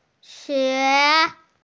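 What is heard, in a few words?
"xue2", Mandarin Chinese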